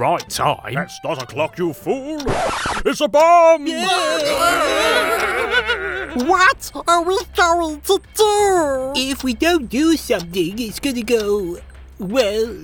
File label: Animation Reel